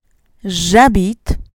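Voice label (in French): "J'habite", French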